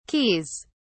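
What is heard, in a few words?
"keys", British English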